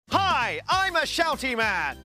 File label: shouty man